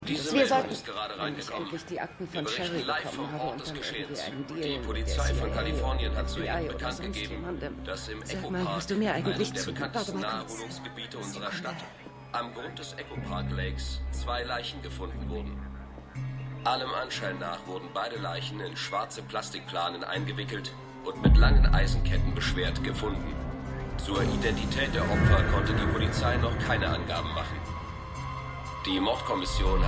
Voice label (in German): Nachrichtensprecher, Stimmproben; The Flight Attendant